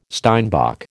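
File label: "steinbock", American English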